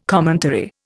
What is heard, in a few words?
"commentary", Indian